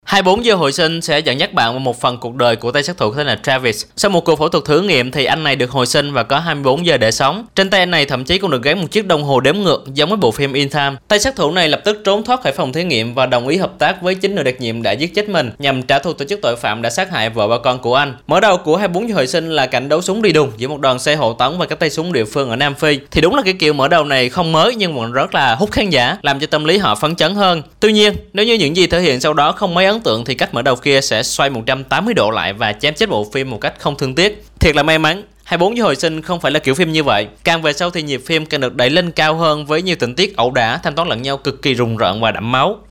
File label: Vietnamese